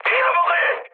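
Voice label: Korean trick mood high; speech; War Thunder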